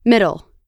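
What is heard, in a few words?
"middle", American English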